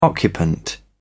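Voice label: "occupant", British English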